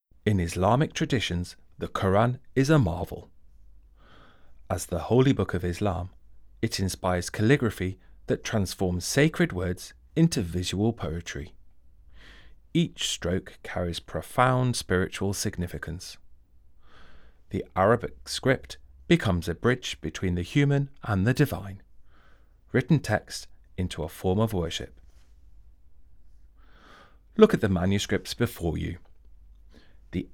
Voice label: English, audio guide; Qur'an; 27th copy by Khalil bin Hasan; Ottoman Türkiye; 1742